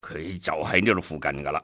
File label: around here, cantonese male; XBOX; enemy